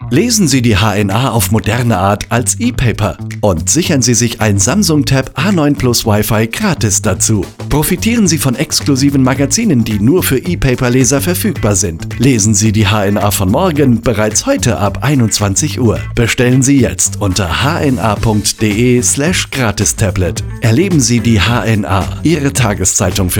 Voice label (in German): Funkspot, ePaper; Mai 2024; 26 Sek; HNA